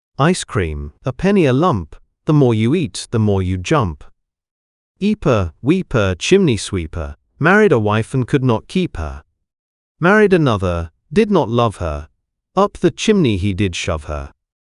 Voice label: male brit; Ice Cream a Penny a Lump

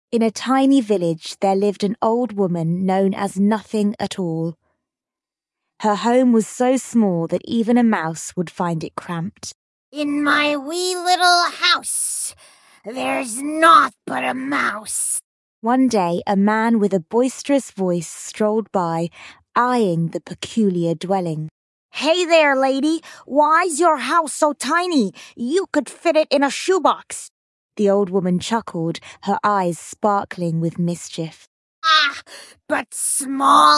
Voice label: fem brit; There Was an Old Woman

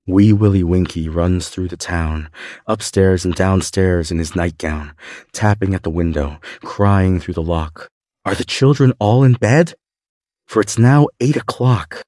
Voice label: male us; Wee Willie Winkie

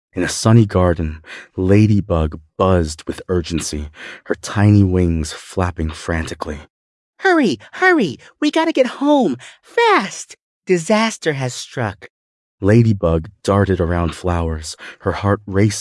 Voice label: male US story, classic rhymes; Ladybug Ladybug

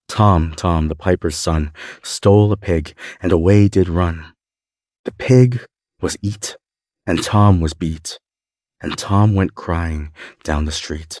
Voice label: Tom Tom the Pipers Son male us

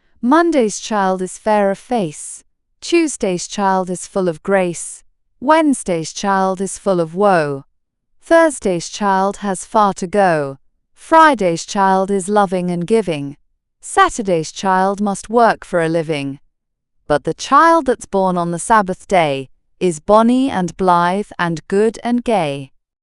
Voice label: fem brit; Mondays Child

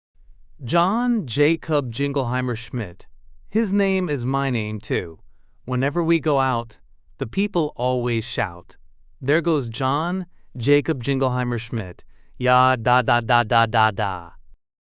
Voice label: male US, classic rhymes; John Jacob Jingleheimer Schmidt

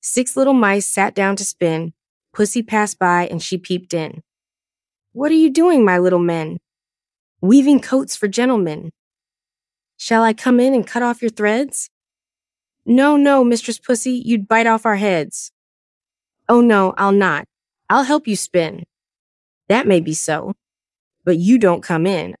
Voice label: youth US; Six Little Mice

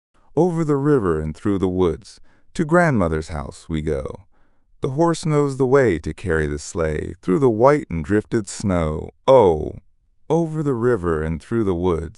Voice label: male US, classic rhymes; Over the River and Through the Woods

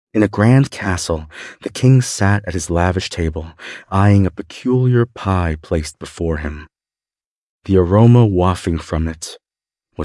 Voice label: male US, classic rhymes; Sing A Song of Sixpence

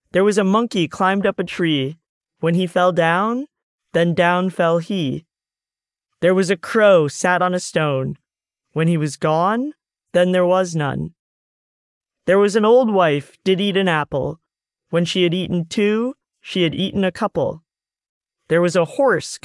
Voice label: male US, classic rhymes; There was a Monkey

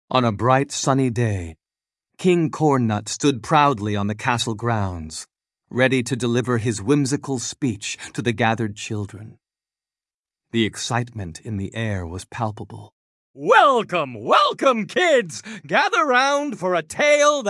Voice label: male us story; Vintery Mintery Cutery Corn

